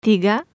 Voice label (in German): zaehlen Indonesisch